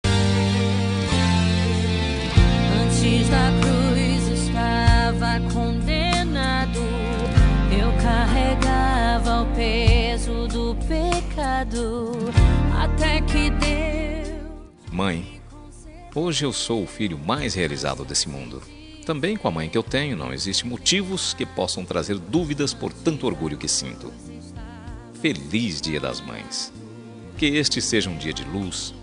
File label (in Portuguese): evangelica masc; dia das maes para mae evangelica